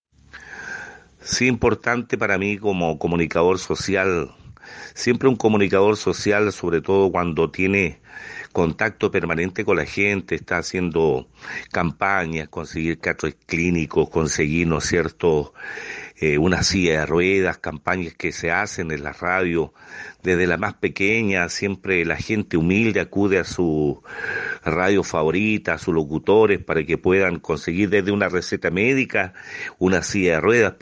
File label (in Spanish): Cuña